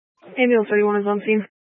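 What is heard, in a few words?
Incident Tactical 1, Scanner audio recording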